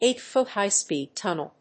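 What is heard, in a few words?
"Eight-Foot High Speed Tunnel", English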